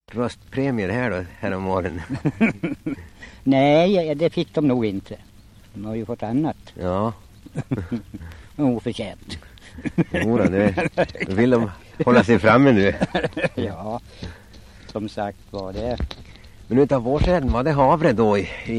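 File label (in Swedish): Västerås-Barkarö Västmanland, Svenska sagor, dialekter; Folkminnen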